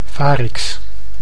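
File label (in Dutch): "varix", Dutch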